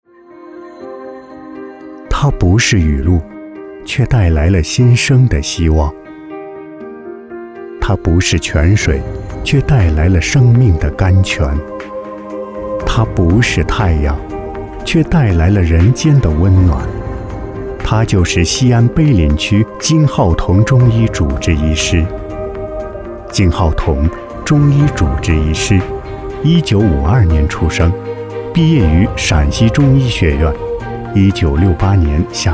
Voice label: 悠扬

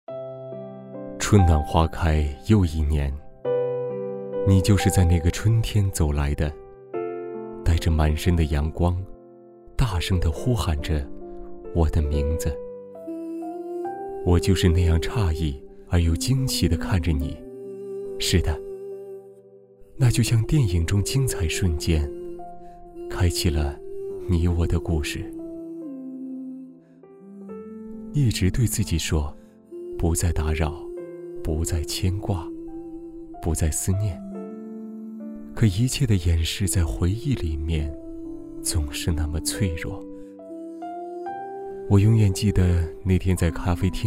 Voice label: 催泪独白; 信

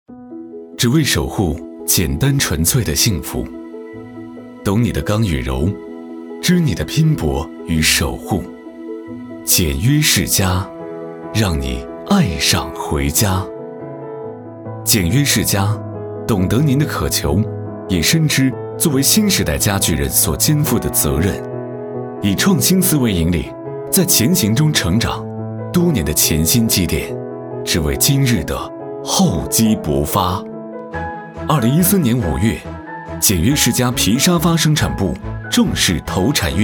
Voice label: 广告 简约 洋气优雅

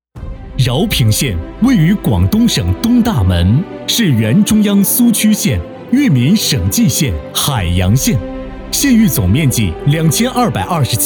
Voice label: 专题招商片; 饶平

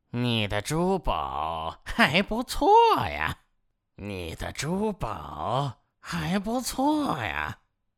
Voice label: 侏儒地精类

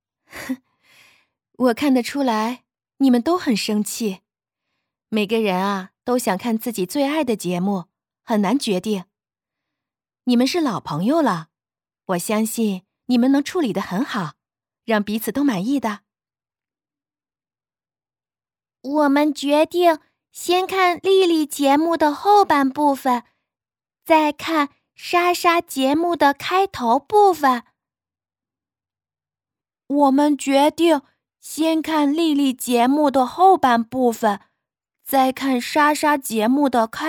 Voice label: 妈妈男孩女孩

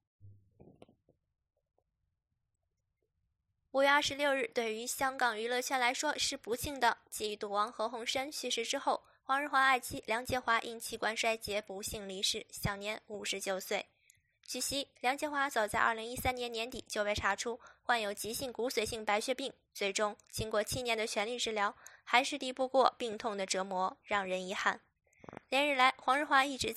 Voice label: 娱乐新闻试音

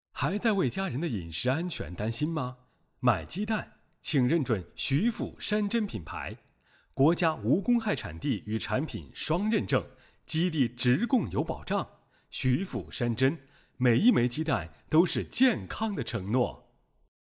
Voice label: 广告样音

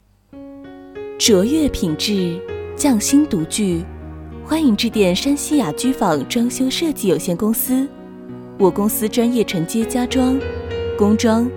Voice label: 装修设计有限公司彩铃正式风格; 山西雅居坊装修设计有限公司

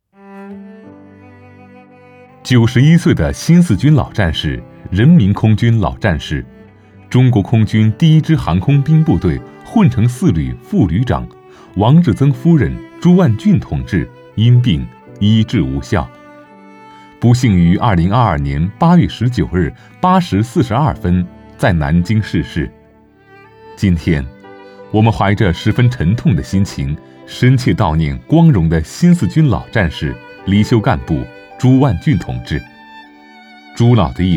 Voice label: 岁新四军老战士样音

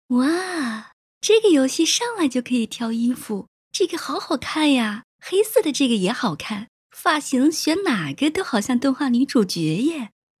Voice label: 游戏广告配音

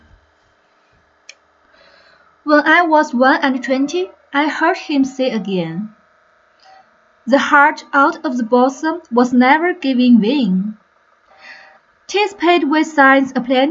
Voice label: 英语诗歌; 我二十一岁的时候

